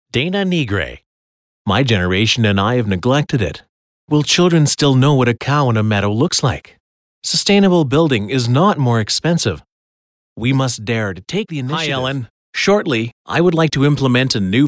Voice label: Elearning